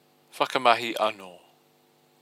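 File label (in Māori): "whakamahi ano", Māori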